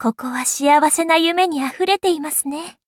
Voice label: level up, ja; cookie run